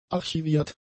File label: "archiviert", German; adjectives